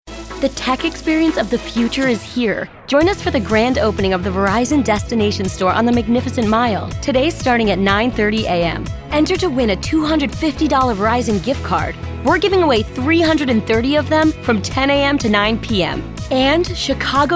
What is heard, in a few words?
commercial, commercial women